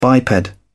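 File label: "biped", British English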